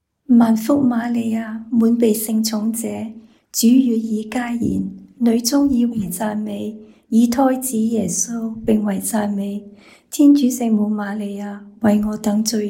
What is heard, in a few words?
hail mary cantonese